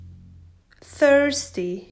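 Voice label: "Thirst", English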